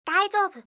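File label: "daijoubu", Japanese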